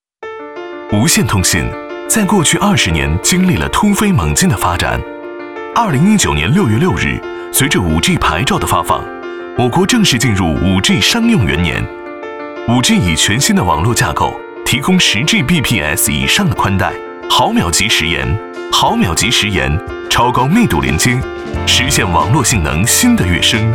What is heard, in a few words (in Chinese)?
男, 科技感; 移动5G